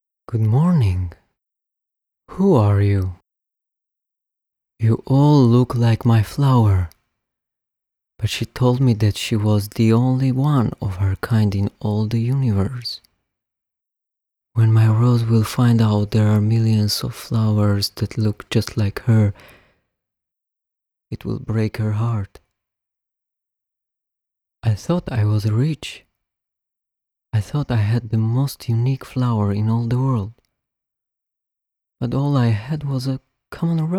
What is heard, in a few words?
Dialog